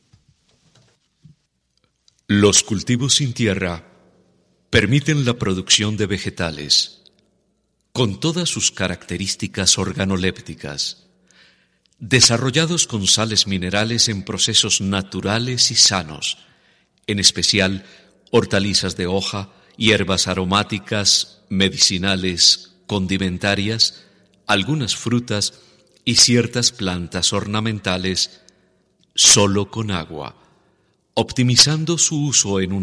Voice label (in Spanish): documento sonoro programa reciclaje, Narrador colombiano; medellin